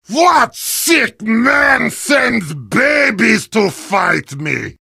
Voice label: heavy taunts; video games; source engine; tf